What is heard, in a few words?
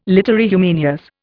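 "literae humaniores", Indian English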